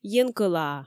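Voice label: "енкӧлаа", Komi, female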